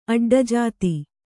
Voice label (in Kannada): "ಅಡ್ಡಜಾತಿ", Kannada